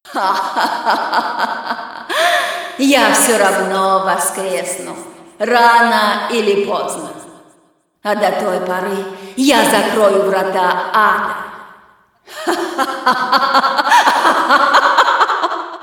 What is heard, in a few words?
cinematic voice, ru; lineage2